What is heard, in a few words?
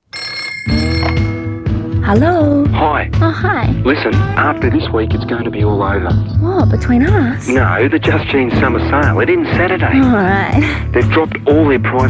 Voice Overs; Just Jeans